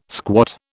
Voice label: "squat", British